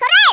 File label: take cover, Hispanic; Worms Armageddon